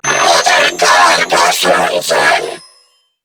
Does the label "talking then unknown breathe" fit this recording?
No